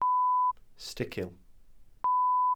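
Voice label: "sticil", Welsh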